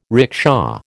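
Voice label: "rickshaw", American English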